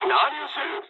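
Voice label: Japanese attack position mood high; War Thunder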